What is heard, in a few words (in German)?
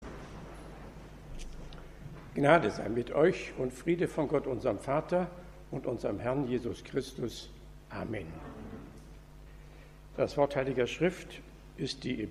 Lesepredigt; am 15. So nach Trinitatis; 1. Petrus 5, 5-11